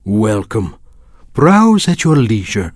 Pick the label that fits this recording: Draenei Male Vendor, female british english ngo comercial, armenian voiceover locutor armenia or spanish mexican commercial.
Draenei Male Vendor